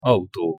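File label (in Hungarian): "autó", Hungarian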